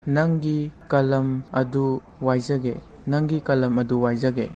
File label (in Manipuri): "Nangi kalam adu waijage?", Manipuri; Will you give me your pen?